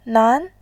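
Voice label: "nan", Mandarin, tone 2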